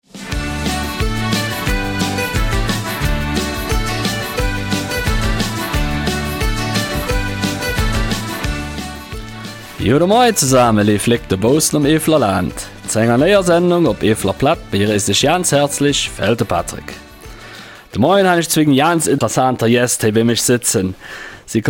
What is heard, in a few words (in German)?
Eifeler Mundart; Die Geschichtes des Schlosses Bracht